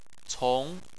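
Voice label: "cong" second tone, Chinese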